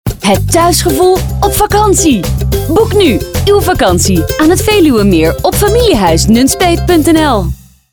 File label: Commercial; Familiehuis Nunspeet 2017 Reformatorische Omroep